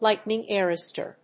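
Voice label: "lightning arrester", English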